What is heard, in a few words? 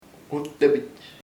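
skull crAneo, Chamacoco word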